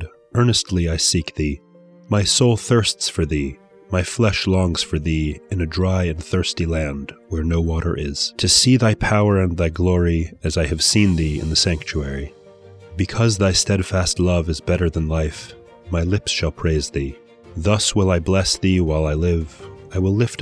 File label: poetry and prayer psalm english